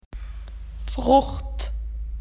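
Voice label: "fruit", Australian English; PictureDictionary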